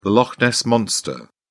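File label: "loch ness monster", English, British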